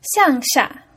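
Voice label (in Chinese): "xiang xia", Mandarin Chinese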